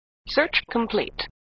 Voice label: Voice Computer "Search Complete"